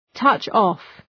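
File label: "touch off", English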